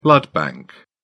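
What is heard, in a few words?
"blood bank", British English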